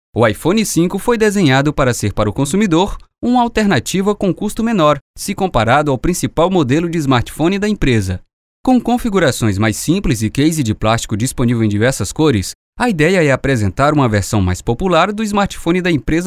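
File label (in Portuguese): Narracao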